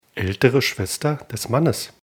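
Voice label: "alt schwester mann", German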